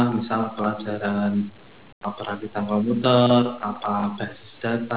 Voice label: telephone; Indonesian Conversational Speech Data by Telephone; Nexdata